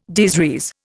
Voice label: "des res", Indian

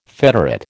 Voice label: "federate", American

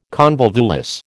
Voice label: "convolvulus", American

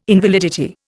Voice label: "invalidity", Indian

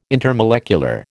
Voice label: "intermolecular", American

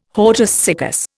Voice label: "hortus siccus", Indian

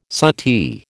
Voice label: "suttee", American

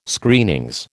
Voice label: "Screenings", American

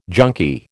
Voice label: "junkie", American

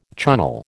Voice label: "Chunnel", American

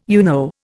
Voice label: "UNO", Indian

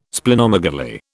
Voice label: "Splenomegaly", British

